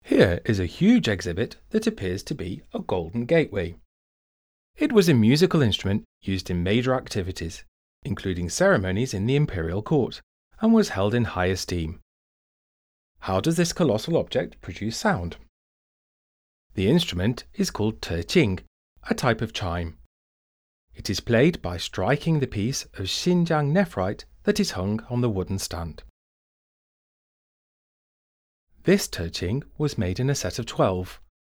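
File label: English, audio guide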